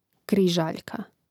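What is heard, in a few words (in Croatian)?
"križaljka", Croatian; Mrežnik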